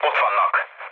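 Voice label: enemy detected, mood low, Hungarian; War Thunder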